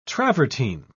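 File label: "travertine", British English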